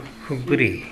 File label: "fuggure", minamiyamagata